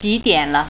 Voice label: what time, Mandarin

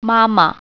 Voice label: "mother", Mandarin

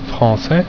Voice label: "Francais", french

